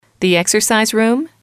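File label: "exercise room", English, female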